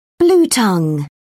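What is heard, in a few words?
"bluetongue", British English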